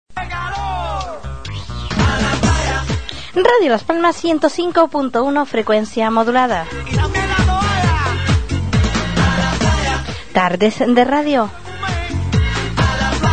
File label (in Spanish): Promo programa, Radio; Tardes de radio; Radio Las Palmas